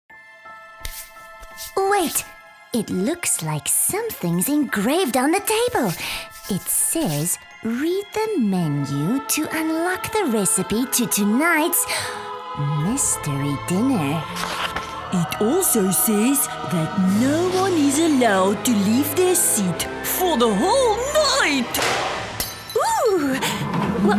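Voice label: Character English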